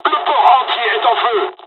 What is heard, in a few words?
japanese first wave, dialogs french; War Thunder